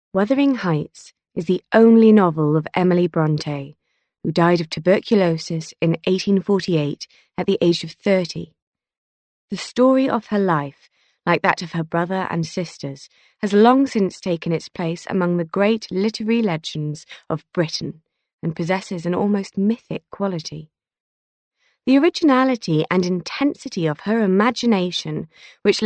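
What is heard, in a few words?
British English, female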